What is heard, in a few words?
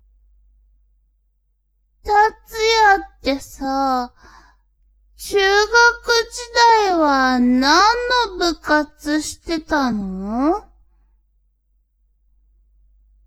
woman